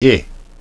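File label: vowel check i, English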